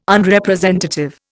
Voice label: "Unrepresentative", Indian